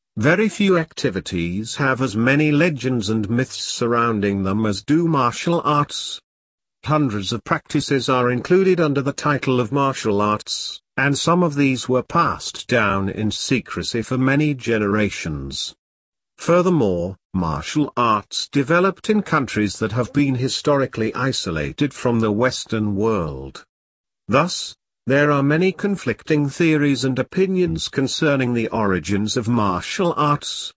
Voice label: Read Aloud model answer, pte speaking